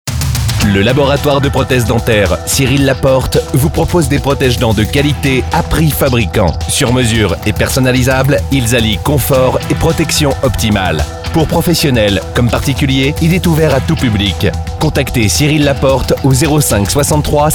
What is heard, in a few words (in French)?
spot radio